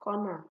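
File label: "corner", Nigerian Pidgin, female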